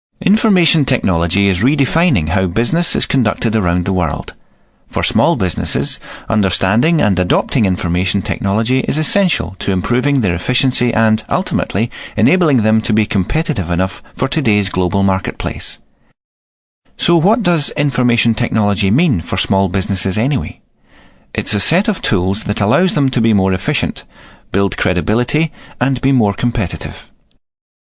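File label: Scottish English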